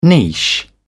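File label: "niche", British English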